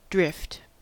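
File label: "drift", US English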